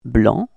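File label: "blã", French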